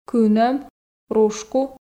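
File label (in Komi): кынӧм рушку, Komi, female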